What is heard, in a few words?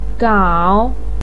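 "gao" third tone, chinese, voice pinyin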